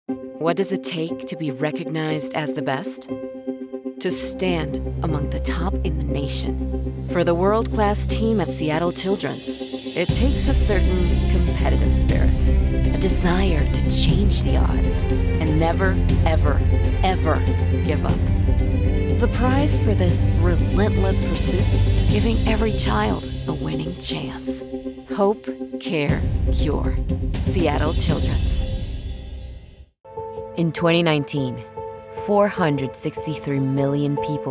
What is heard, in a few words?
Medical English